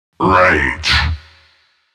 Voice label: kills, announcer, male